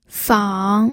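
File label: "fang", Mandarin Chinese, third tone; pinyin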